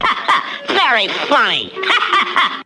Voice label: ha ha very funny ha ha ha, expletives